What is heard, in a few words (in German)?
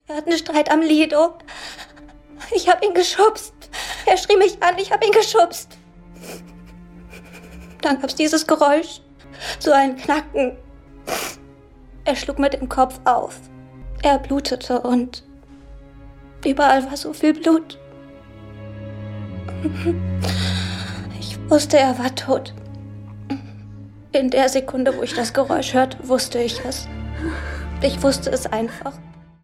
Jugendliche Geständnis weinend